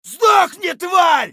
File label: scav battlecry; eft